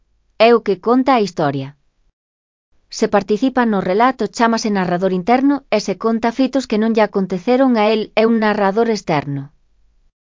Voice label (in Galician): narrador